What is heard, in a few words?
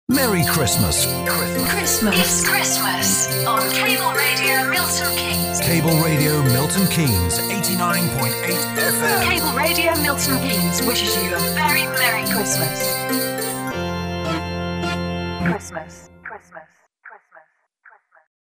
Cable Radio